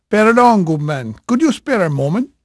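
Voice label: Portuguese male, voice; Pirates Of The Caribbean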